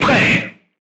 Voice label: voice message charged, crew tanks french

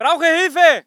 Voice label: voice ger infantry

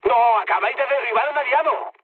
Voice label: player killed ally, mood low, speech; War Thunder

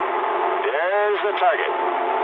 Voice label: eng near waypoint mood low, speech eng; War Thunder

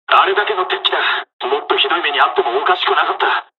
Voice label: dialog, dialogs japanese; War Thunder

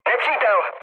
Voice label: enemy detected, speech cze, mood low; War Thunder

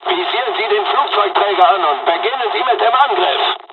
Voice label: dialog, dialogs german; War Thunder; midway

